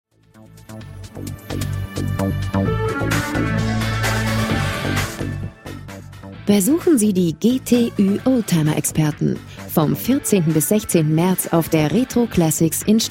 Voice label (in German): Werbespot; GTÜ